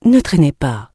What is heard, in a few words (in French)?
ne trainer pas, bloodelf male; PhoenixCraft-1.16.5; PhoenixNPCPack; minecraft